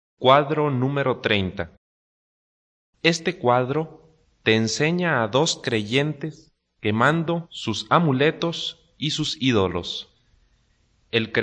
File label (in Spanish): good news spanish mexico